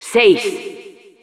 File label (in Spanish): Announcer ends 6 sec, es; ¡Seis!; Respuestas de la Narradora